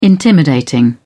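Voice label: "intimidating", British English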